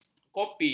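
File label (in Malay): "kopi", Malay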